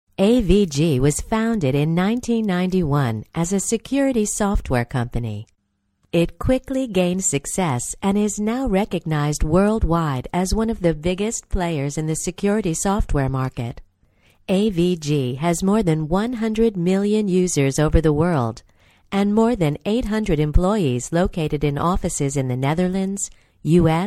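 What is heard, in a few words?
American narration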